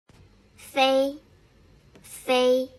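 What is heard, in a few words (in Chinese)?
"飛", 台湾華語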